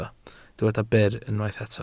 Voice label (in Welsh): "byr", Welsh